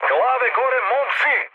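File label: Serbian near waypoint mood high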